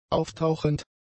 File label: "auftauchend", German; adjectives